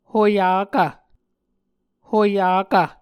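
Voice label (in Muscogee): "hoyakv", Muscogee word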